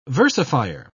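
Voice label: "versifier", British English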